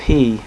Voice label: "P", MALE; VOICE, ALPHABET